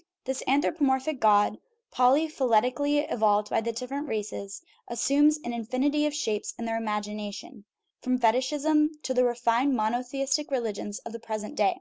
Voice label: woman; intone